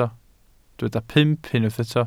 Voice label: "pump", Welsh